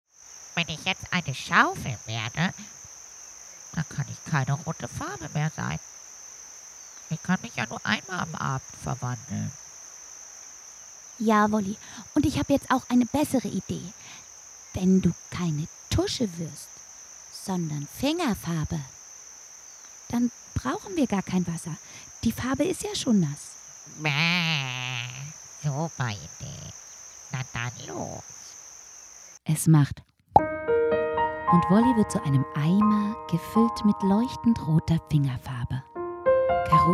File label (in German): Kinderhörspiel